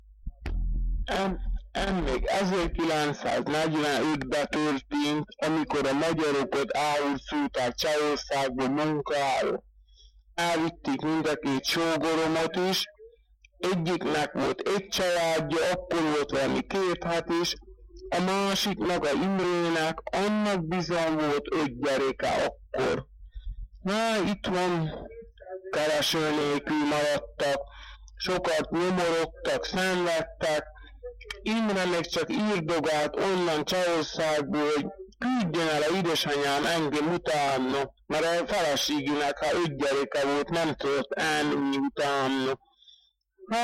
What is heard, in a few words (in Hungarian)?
nyelvjaras gyujtesek